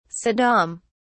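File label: "saddam", English, British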